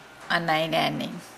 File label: Nukuoro